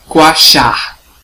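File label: "croak", Brazilian Portuguese; gcompris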